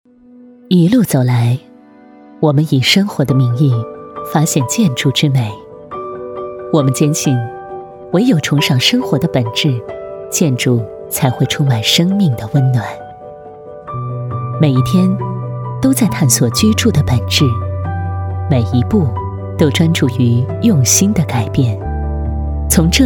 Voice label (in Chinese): 女; 地产; 浩宇房产; 用心筑家